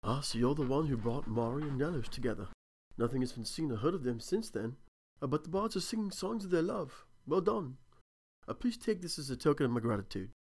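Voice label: breton sample british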